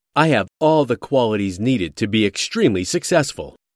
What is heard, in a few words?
Male; Qualities